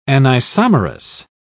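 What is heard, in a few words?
"anisomerous", British English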